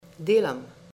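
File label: I Work, Slovenian; Virtual Mobility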